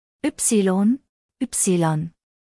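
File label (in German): "Ypsilon", German spelling alphabet; buchstabierung alt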